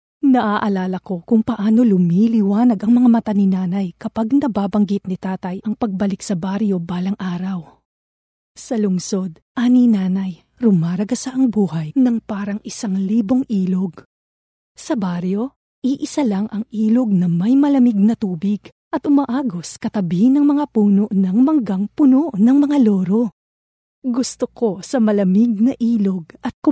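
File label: Tagalog Female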